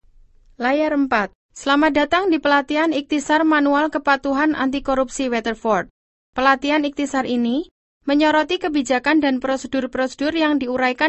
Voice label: Indonesian F Narration; voice over